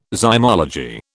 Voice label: "zymology", British